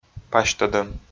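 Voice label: "пасьтӧдӧм", Komi, male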